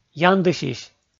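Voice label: "яндысьысь", Komi, male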